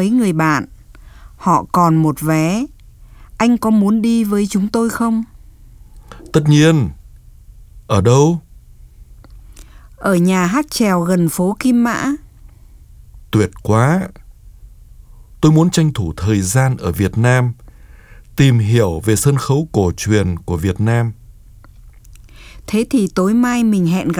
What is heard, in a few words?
Dialog